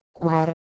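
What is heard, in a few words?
"gwerh2", Proto-Indo-European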